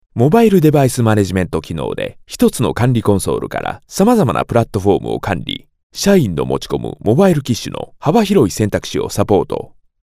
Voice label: Japanese Male